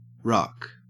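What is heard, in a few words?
"ruck", Australian English; pronunciations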